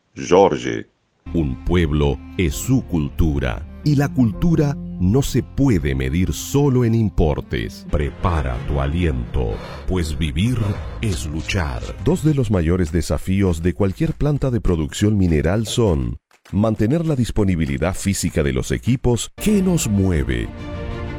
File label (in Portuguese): Nativos espanhol